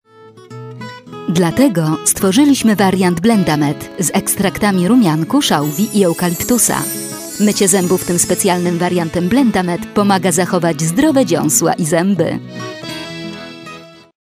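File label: Polish, female, commercial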